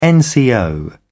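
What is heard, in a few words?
"nco", British English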